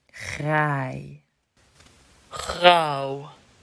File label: graai grauw, Dutch